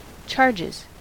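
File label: "charges", US English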